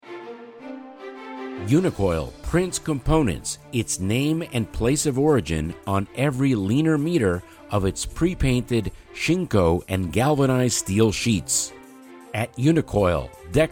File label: Radio Spot Music